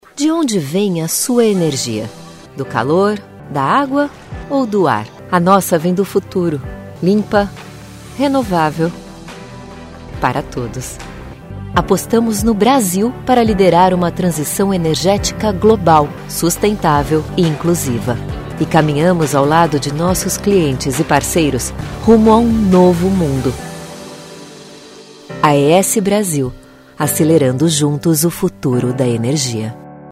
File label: inspirational